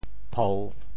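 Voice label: "phou3", Teochew; 潮州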